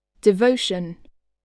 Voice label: "devotion", english spelling

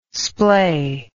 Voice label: "splay", english

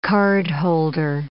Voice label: "cardholder", English

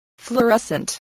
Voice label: "fluorescent", spelling

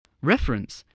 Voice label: "reference", spelling voice

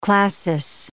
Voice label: "classis", English

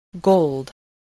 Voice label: "gold", spelling

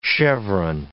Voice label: "chevron", English

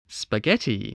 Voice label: "spaghetti", English voice

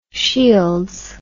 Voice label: "shields", words

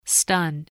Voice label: "stun", voice spelling

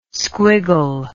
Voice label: "squiggle", english

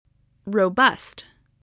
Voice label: "robust", English spelling

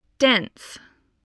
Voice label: "dense", English

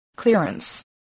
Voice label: "clearance", voice

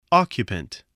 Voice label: "occupant", voice, spelling

